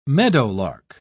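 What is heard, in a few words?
"meadowlark", British English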